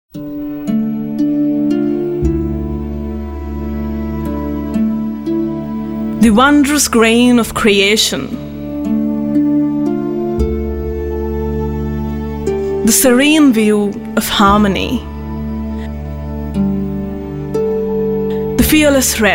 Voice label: English promo, female voice